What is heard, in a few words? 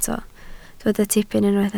"tipyn", Welsh